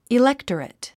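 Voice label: "electorate", English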